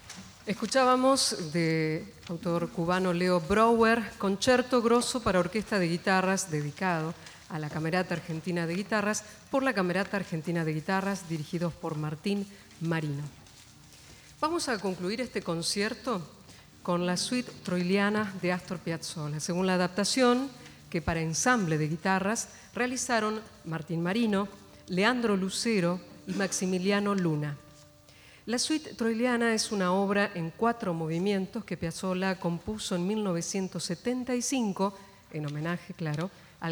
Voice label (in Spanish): locutora